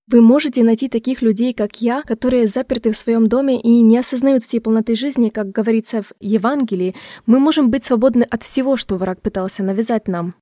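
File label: Russian Voice Over Corporate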